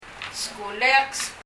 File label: "skuulek", パラオ語; 「私の学校」